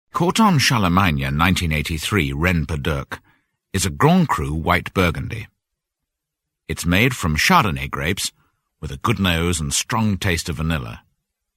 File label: Commercial; Cathay pacific; Vocal Point